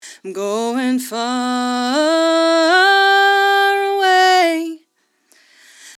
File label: long delay dry, vocal